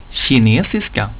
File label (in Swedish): "kinesisk", swedish